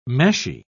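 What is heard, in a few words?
"meshy", British English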